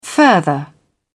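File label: "further", British English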